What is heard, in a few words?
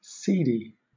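"cedi", British English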